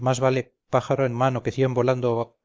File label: spanish; es-spanish